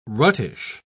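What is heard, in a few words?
"ruttish", British English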